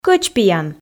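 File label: "кӧчпиян", Komi, female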